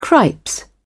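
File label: "cripes", British English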